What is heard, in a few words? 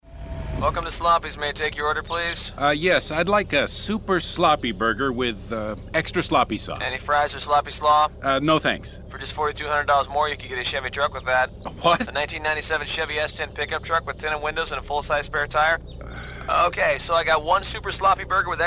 Classified Radio; Northwest Classified Sloppys